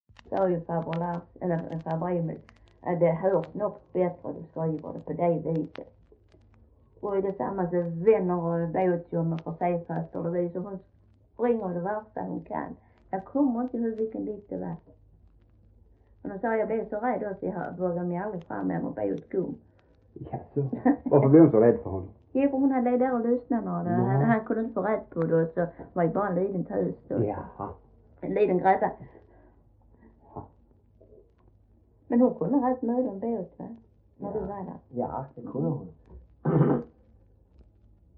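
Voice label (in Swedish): Äspö Skåne, svenska sagor dialekter; Folkminnen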